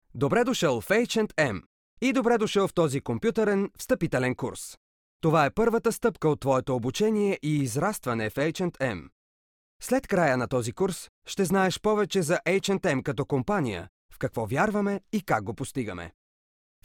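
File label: Bulgarian, male, e-learning; voice demos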